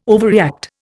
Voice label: "overreact", Indian

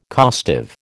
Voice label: "costive", American

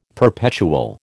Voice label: "perpetual", American

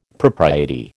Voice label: "propriety", American

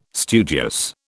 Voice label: "studious", British

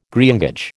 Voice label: "greengage", American

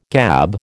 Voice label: "gab", American

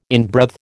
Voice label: "inbreathe", American

